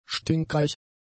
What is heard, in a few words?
"stinkreich", German; adjectives